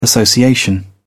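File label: "association", British English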